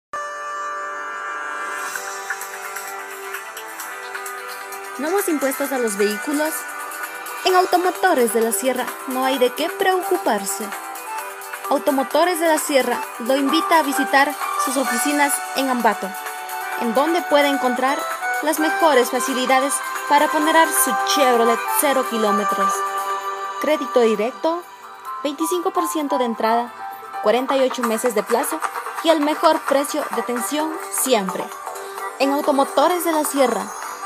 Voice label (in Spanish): spot publicitario